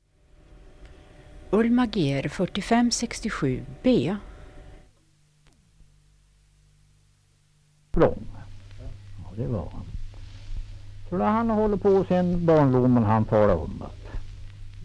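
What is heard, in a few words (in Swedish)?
Kräklinge Närke, dialekter; Folkminnen; Svenska sagor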